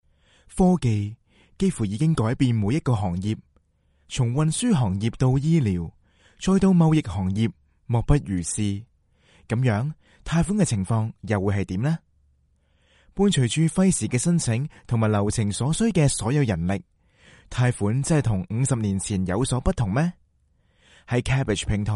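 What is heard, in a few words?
Chinese Cantonese male; voice over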